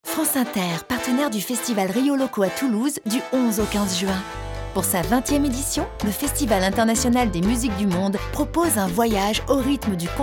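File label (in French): naturelle douce, comédienne voix off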